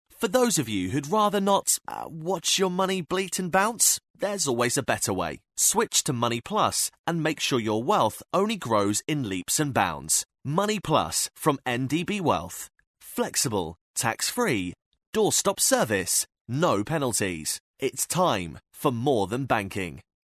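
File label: English, British, male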